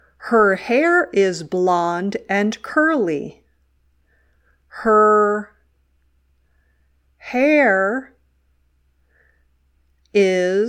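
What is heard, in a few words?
"dictation", English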